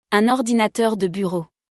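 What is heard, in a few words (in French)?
"Un ordinateur de bureau", French; vocabulaire; le lexique de l'informatique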